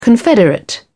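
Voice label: "confederate", British English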